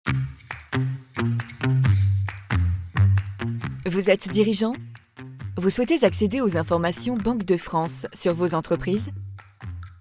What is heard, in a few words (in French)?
VO Corporate; Banque de France